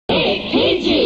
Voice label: shout; 1966; big pg